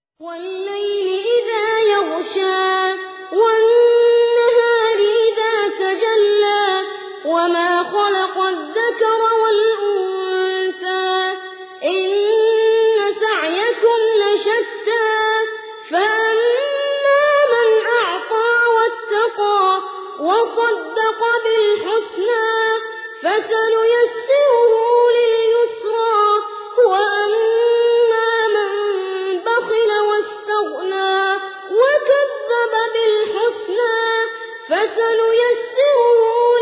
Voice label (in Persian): ترتیل سوره لیل; محمد البراک